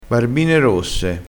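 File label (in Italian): "barbine rosse", dialettale crespinese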